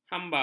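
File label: "hamba", Malay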